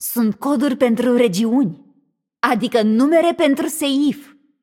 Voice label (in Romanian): Room2 VO Hint Trigger, vo, ro-ro